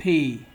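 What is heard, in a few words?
"pay", Nigerian Pidgin, male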